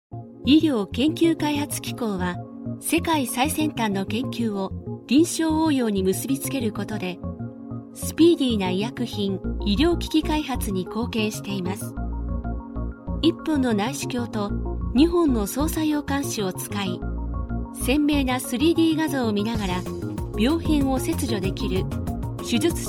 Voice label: female